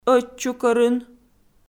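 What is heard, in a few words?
"ӧтчукӧрын", Komi, female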